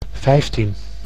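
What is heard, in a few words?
"vijftien", Dutch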